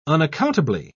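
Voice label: "unaccountably", British English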